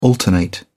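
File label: "alternate", British English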